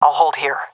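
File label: waiting here, female; vo; Counter Strike Model Pack; CS:GO; seal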